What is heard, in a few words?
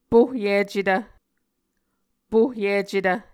"pohyicetv", Muscogee